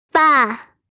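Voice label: "ba4", Mandarin Chinese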